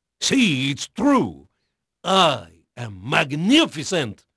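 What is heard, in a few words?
Portuguese male, voice; Pirates Of The Caribbean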